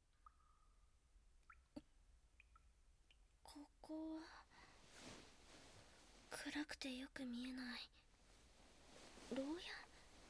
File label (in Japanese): 耳舐め, ASMR; 隷属化スキルで無理やりぐっぽり耳舐め